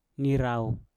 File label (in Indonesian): "nirauw" hutan, Mpur